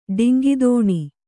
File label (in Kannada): "ಡೊಂಕುತನ", kannada